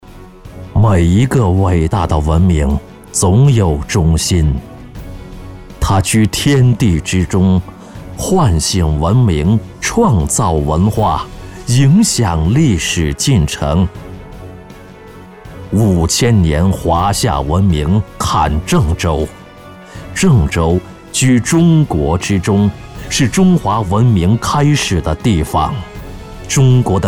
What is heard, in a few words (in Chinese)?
男 专题片 宣传片; 郑州宣传片